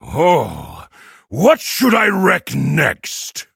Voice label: levelup, en; Cookie Run

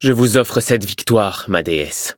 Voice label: victory, fr; Cookie Run

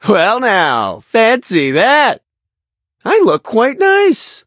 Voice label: level up en; Cookie Run

